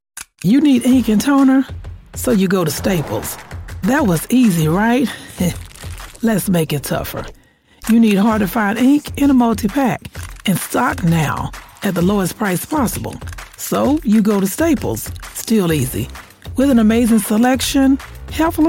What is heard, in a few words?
ad; staples